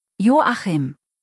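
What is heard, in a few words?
"Joachim", German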